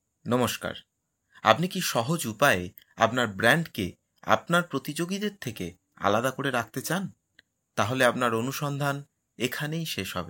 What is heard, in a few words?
Real Bengali Male Voiceover